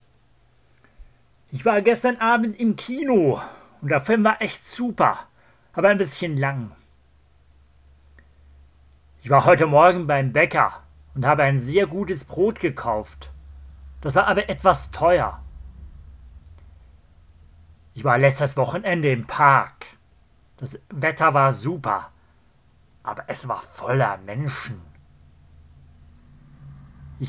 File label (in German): Sprachprobe Hochdeutsch